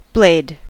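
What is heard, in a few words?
"blade", English, American accent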